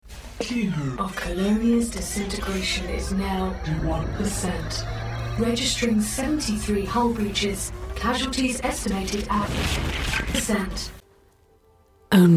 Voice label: english british video games